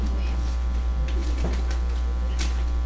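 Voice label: "also", spoken word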